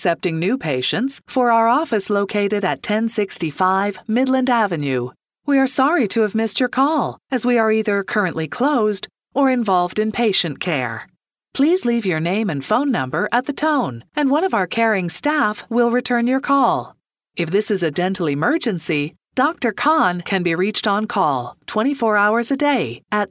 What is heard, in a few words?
Dentistry Voicemail Greeting; Cataraqui Woods Dentistry